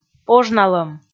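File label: "пожналӧм", Komi, female; kpv audio dict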